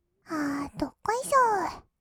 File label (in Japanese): "あーどっこいしょー", kawaii voice; ASMR; 1200 kawaii voice!!; 音ゲー